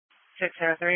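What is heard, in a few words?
Police Patrol Dispatch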